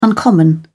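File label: "uncommon", British English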